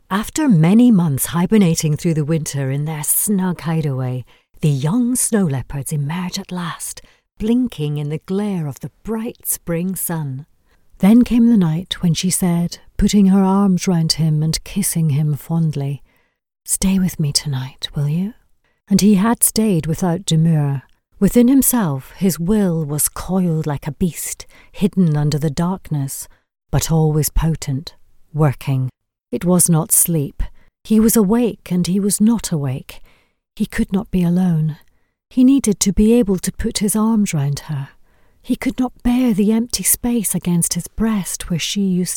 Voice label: narration reel